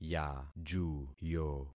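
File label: "yajuyo", concatenated syllables; Affixing experiment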